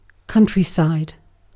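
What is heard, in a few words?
"countryside", British English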